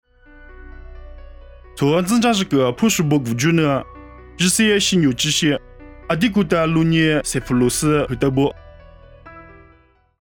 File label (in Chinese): 凉山彝语; 地中海西流基